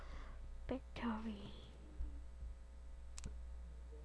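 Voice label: "battery", spoken word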